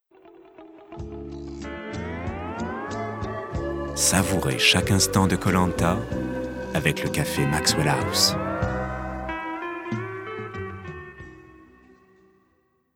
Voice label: billboard; maxwell